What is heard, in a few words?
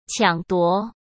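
"抢夺", Mandarin Chinese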